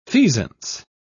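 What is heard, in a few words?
"feasance", British English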